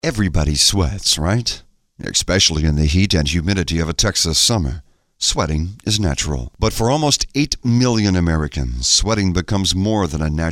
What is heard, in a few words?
Radio AD